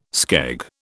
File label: "skeg", British